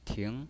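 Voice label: "ting", pinyin voice, second tone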